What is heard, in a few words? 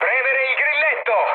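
Italian attack position mood med, speech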